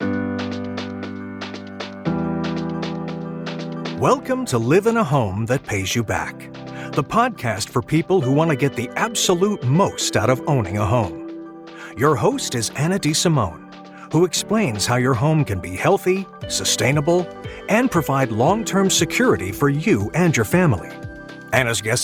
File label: english american podcasts